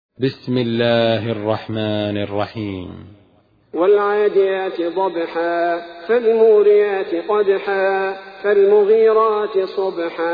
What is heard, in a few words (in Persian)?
ترتیل سوره عادیات; الثبیتی